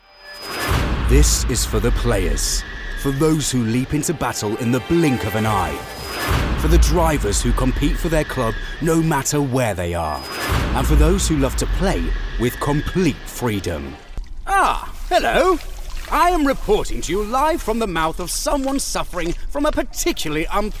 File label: Commercial